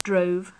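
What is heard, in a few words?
"drove", British English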